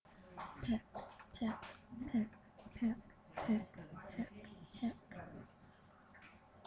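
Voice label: "pick", spoken word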